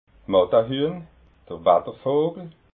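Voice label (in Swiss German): "mattahuehn", Alsatian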